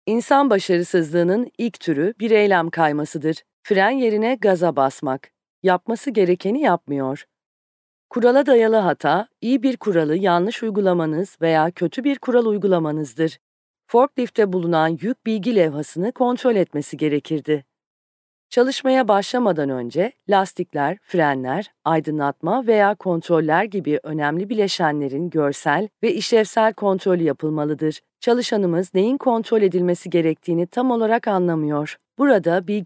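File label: Turkish, female, e-learning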